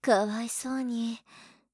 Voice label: 可哀相に…, 姉; ハイグレボイス集

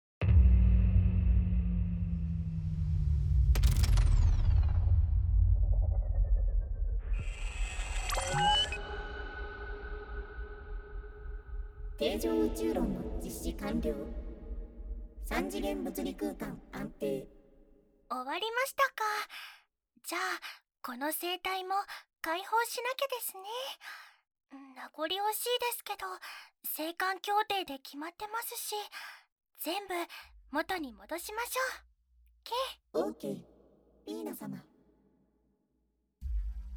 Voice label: 催眠解除トラック; どうしても催眠が解けない方だけお聴き下さい

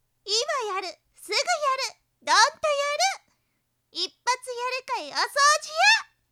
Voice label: ASMR, タイトルコール; リリカちゃん; フリーランス悪魔っコ; おそうじや

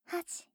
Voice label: 畳み掛けカウント, ASMR

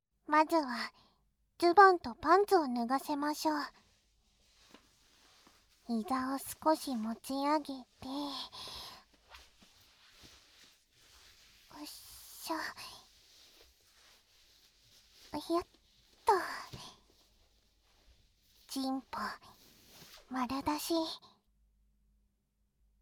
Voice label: 日本語翻訳版 スロー耳舐め, ASMR; 私達の目的はあなたから精液採取すること

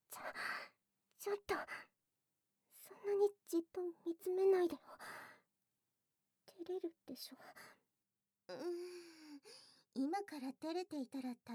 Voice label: 耳舐めとディープキス SEなし; お耳に妊活指導!

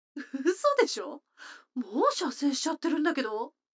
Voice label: 女の子台詞

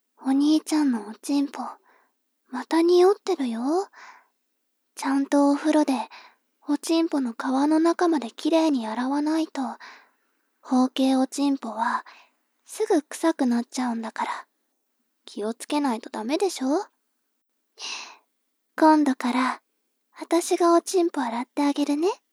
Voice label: 妹 台詞

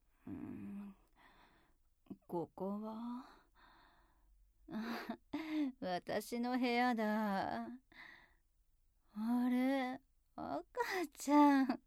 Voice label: 上司ママの耳舐めと乳首責め

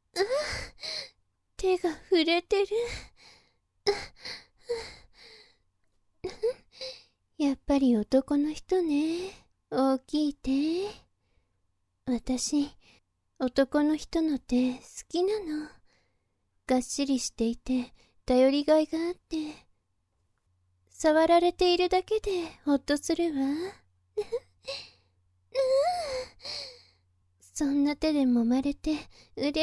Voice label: ボイスのみ; ソワレ

